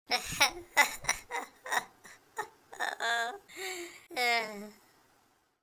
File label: sad; Worms3D; Whoopsie